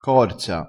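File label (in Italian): "corza", dialettale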